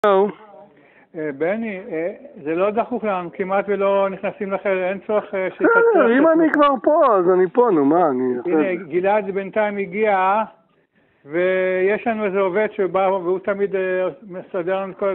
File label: Call recording